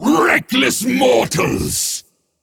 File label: Male Flamewaker Attack